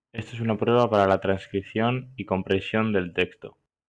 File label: Record, online voice recorder